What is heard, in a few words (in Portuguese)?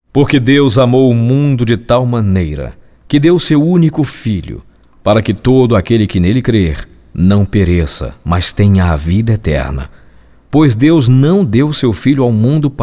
Narração